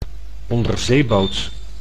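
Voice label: "onderzeeboot", Dutch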